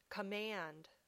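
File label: "command", English word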